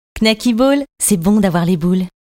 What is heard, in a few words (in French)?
PUB TV Voix ado; KNACKY BALL